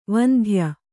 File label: "ವಂದ್ಯ", Kannada